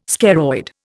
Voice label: "scaroid", Indian

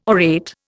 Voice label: "orate", Indian

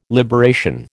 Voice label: "liberation", American

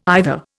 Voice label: "either", Indian

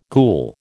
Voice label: "ghoul", American

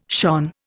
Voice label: "shone", Indian

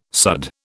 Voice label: "sudd", British

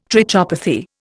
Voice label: "trichopathy", Indian

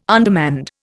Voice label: "undermanned", Indian

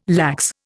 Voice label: "lax", Indian

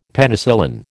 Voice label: "Penicillin", American

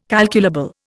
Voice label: "calculable", Indian

